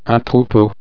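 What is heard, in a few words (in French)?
"troupeau", French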